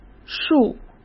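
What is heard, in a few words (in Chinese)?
"束", Mandarin Chinese; chinese flashcards; hsk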